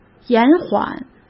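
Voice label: "延缓", Mandarin Chinese; chinese-flashcards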